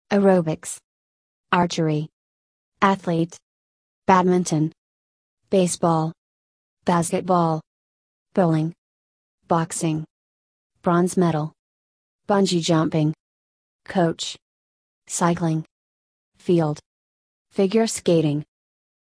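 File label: American; exercise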